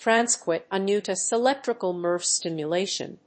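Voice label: "Transcutaneous Electrical Nerve Stimulation", English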